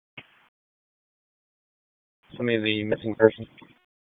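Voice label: Police Northeast District Dispatch; Raleigh; p25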